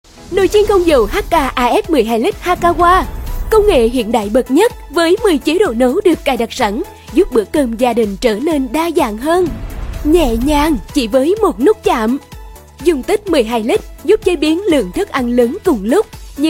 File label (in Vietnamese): TVC Noi chien; Quảng cáo (Nồi chiên Hakawa)